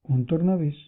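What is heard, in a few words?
screwdriver description Catalan; tuxpaint-stamps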